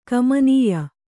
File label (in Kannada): "ಗಮನೀಯ", Kannada